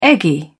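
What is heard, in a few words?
"eggy", British English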